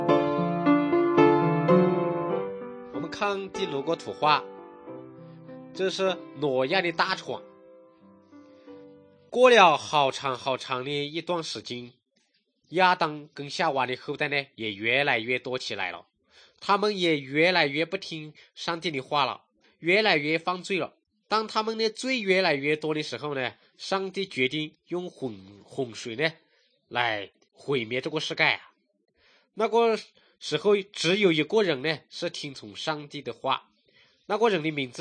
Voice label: good news shan, drama

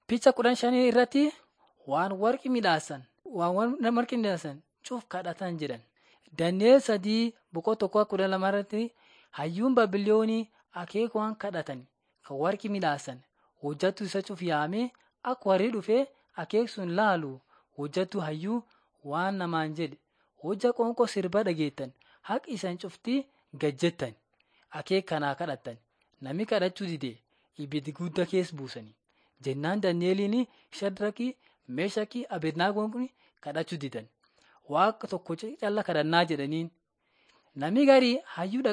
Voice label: borana sakuye; laali chaqasi jiradin 5 jilalacha waaqat on trial for god

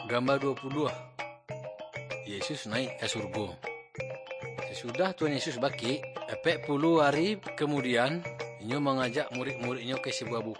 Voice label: good news minangkabau muko muko; kaba ilo

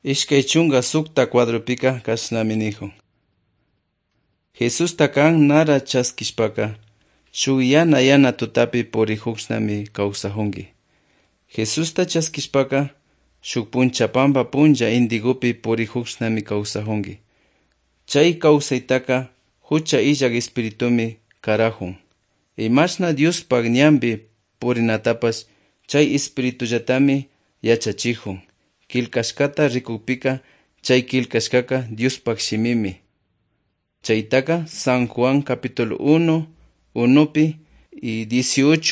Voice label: good news quichua otovalo

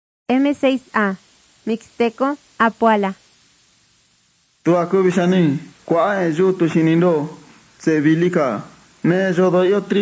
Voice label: mixtec diagnostic spanish mexico

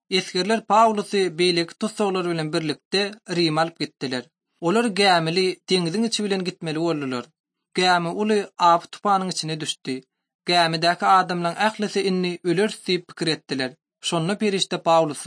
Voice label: turkmen, bible translation drama

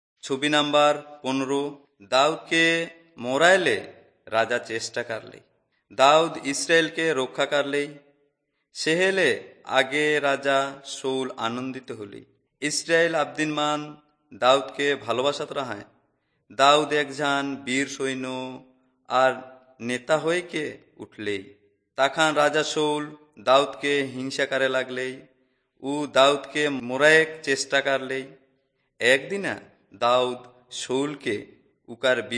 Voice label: servants of god urao, kurukh drama

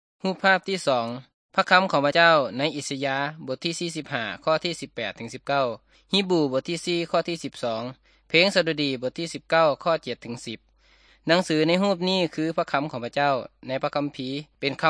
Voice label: good news thai northeastern

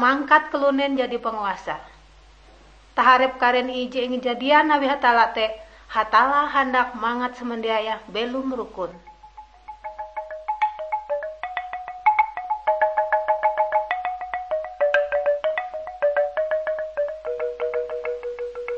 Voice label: kabar bahalap good news ngaju, drama; bible

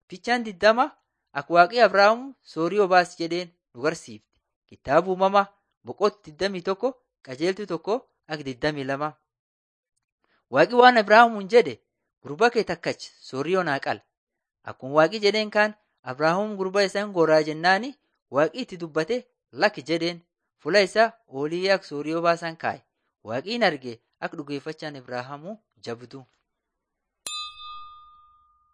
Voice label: borana sakuye

